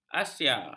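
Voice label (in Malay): "asia", Malay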